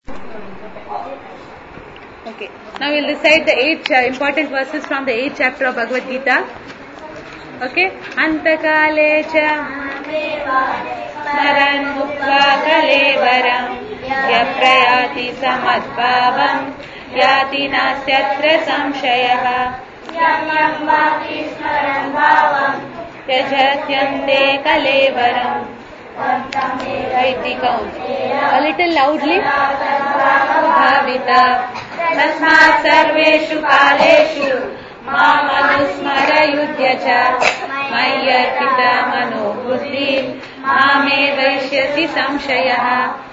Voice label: Kids Recitation; Sloka recitation; Imp Verses; BG Ch8